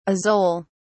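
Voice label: "azole", British English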